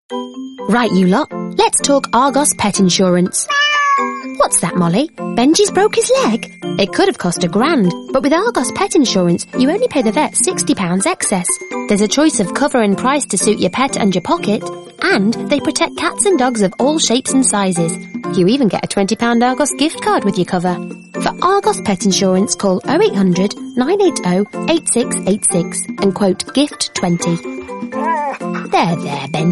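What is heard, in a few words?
English, female, light north west accent; voice over; accents of england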